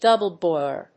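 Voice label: "double boiler", English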